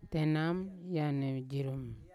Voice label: "tenam eyane jirum" twenty, Yawa

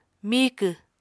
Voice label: "miikw", Apatani

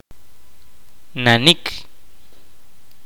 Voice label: "a lot", Kaqchikel

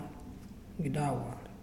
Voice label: Root, Muyuw; Lae Unitech

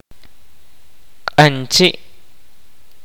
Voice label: kaqchikel; North American person

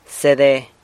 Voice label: "sede", San Lucas Quiaviní

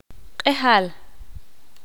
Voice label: ixil; ma ana

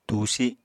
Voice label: "tusi", Apatani

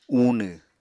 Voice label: "uunw", Apatani

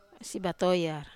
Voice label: "batoyar", Wamesa

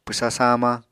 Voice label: "pwsa saamañ", apatani

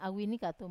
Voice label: "awini katu", mother's younger sister, Wamesa

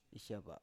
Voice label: "isyava", Biak

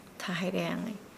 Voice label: Nukuoro; Monday

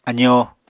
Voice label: "azóo", Apatani

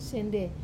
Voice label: "sende" canoe bow, Wamesa

